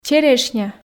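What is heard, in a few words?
"черешня", Komi, female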